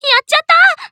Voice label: Emo Damn

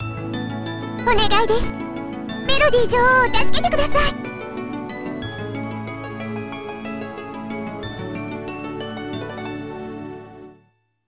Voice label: Start (With Voice); TwinBee Yahho! Fushigi no Kuni de Ooabare!!; Konami GX

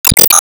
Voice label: Emo Call Name

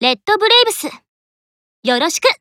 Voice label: Emo Warcry

